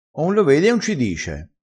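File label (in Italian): "un ci dice", dialetto crespinese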